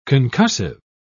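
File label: "concussive", British English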